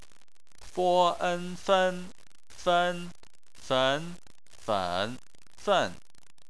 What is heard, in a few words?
fen, chinese